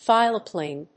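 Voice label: "phylloplane", English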